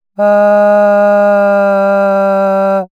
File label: female normal; Voice Disorder Simulation Samples